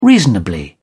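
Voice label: "reasonably", British English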